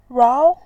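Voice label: "rao", Mandarin Chinese, second tone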